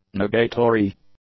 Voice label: "nugatory", english; adjectives